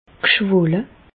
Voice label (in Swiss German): "schwelle", Alsace, Bas Rhin; medecine; enfler